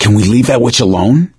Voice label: witch getting angry; source engine; left 4 dead 2; survivor; gambler